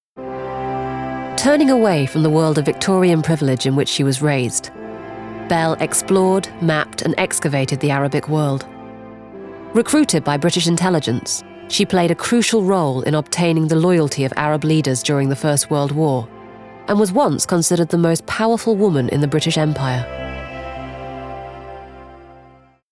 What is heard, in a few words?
VO Showreel Doc; Gertrude Bell